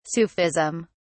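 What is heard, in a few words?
"sufism", British English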